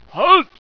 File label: evil sci alert